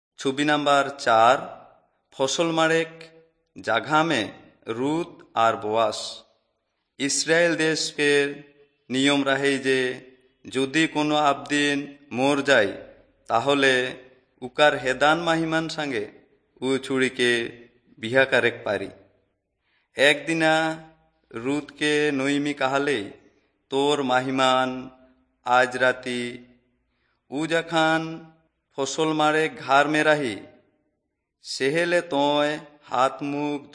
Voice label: servants of god urao, kurukh drama; bible